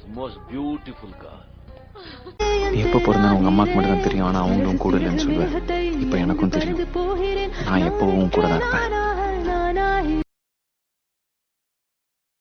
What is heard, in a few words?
Tamil Birthday Wishes; Tamil Actors Birthday Wishes; song